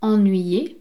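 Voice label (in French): "ennuye", French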